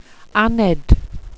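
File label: "aned", welsh pronunciations; Wales/welsh names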